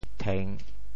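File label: "theng3", Teochew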